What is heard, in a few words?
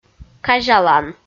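"казялан", Komi, female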